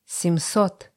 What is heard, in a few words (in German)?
russische zahl 20; Zahlen auf Russisch